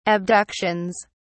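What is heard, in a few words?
"abductions", British English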